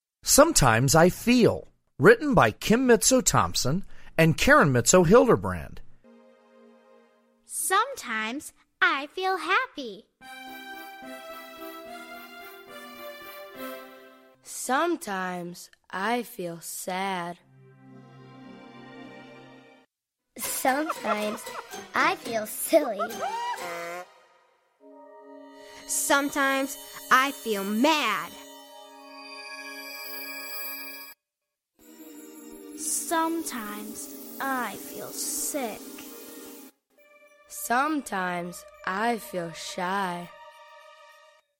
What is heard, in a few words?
English Narration